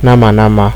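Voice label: "nama nama", Nigerian Pidgin, male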